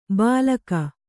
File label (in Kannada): "ಬಾಲಕ", Kannada